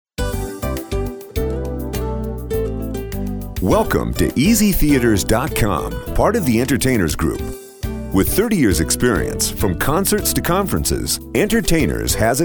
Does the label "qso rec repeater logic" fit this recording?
No